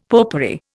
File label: "popery", Indian; Dictionary